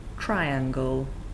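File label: "triangle", English; shapes; the shapes carpet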